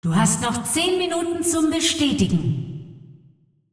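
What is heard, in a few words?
announcer female tournaments lockin 10min, hu_hu; clash; de de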